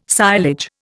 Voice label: "silage", Indian